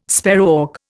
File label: "sparrowhawk", Indian